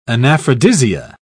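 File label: "anaphrodisia", British English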